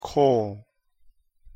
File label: "call", pronunciation en; give someone a call